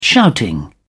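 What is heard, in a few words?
"shouting", British English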